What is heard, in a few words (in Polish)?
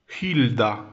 "Hilda", język polski